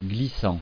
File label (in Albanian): "glissant", frengjisht